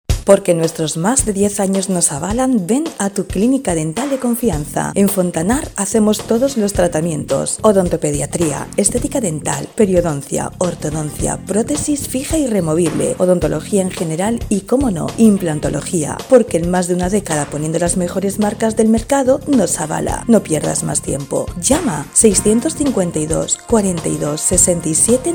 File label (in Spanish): CUÑA; DISTISTA FONTANAR; ONDA YUNQUERA